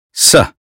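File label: "say", American English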